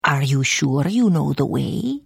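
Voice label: Follow, Khajiit female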